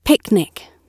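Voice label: "picnic", British English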